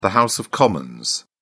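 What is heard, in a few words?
"house of commons", British English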